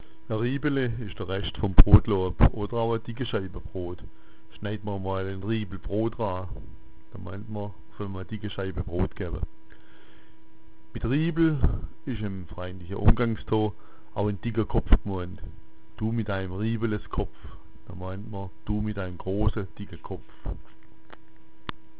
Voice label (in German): "riebele", schwaebisch